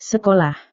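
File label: "sekolah", Indonesian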